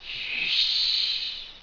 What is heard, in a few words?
"yessssh", animal